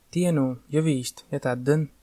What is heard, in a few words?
fine livonian